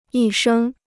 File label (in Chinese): "一生", Chinese